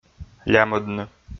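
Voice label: "лямӧдны", Komi, male; kpv audio dict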